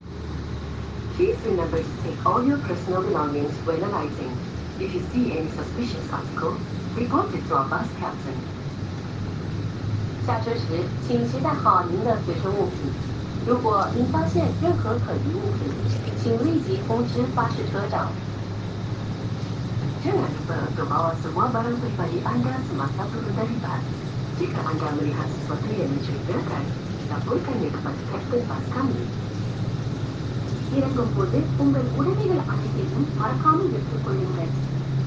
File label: Citaro Announcement